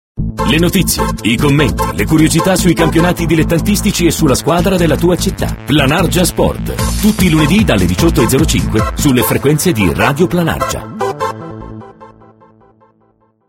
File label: Liner